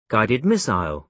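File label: "guided missile", British English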